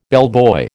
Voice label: "bellboy", American

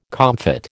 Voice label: "comfit", American

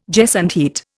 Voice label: "gesundheit", Indian

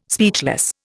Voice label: "speechless", Indian

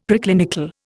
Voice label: "Preclinical", Indian; Dictionary

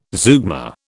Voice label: "zeugma", British

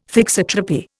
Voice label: "thixotropy", Indian

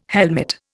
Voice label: "helmet", Indian

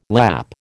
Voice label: "Lapp", American

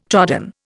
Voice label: "trodden", Indian

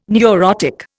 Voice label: "neurotic", Indian

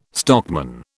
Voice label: "stockman", British

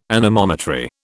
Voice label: "anemometry", British

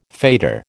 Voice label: "fetor", American

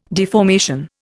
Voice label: "deformation", Indian

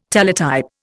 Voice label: "Teletype", Indian

